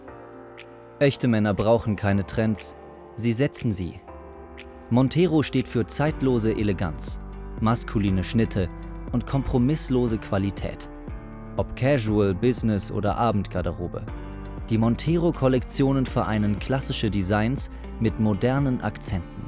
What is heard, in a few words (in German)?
cool mit Musik